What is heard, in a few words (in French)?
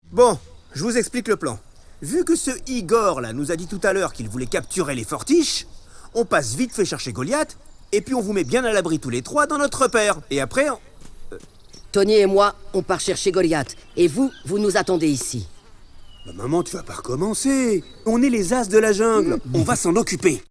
DOUBLAGE DESSIN ANIMÉ; LES AS DE LA JUNGLE